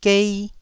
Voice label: "gei1", Taiwanese

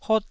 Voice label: "hot1", Taiwanese syllable

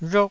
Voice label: "rhuk4", taiwanese

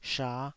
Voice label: "sha5", taiwanese

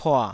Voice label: "pua3", taiwanese

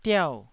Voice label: "diau4", Taiwanese syllable

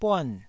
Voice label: "buan4", taiwanese syllables

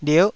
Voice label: "lierh9", Taiwanese syllable

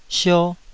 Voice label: "sio2", Taiwanese syllable

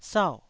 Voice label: "sau4", taiwanese syllable

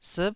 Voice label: "siip9", Taiwanese syllable

